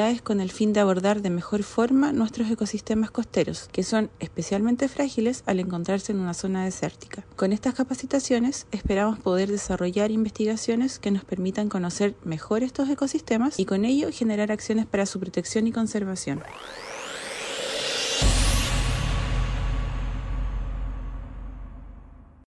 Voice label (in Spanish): podcast medio ambiente